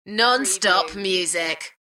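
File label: UK female, DJ vocals; beanstalk-audio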